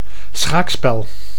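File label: "schaakspel", Dutch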